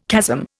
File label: "chasm", Indian